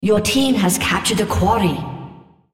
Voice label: female, en US; Odin; Quarry

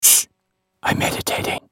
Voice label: spell cast effort; masteryi

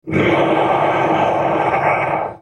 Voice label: ultimate effort, en US; Urgot

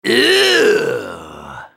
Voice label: spellcast effort, en US; Trundle

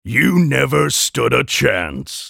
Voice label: taunt, en US; DarkChampion

